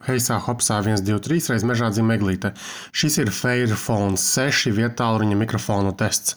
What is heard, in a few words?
mics; Fairphone 6